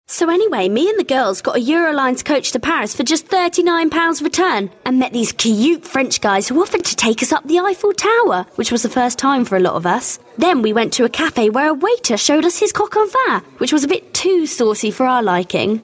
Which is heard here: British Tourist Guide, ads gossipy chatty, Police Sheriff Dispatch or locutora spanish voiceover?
ads gossipy chatty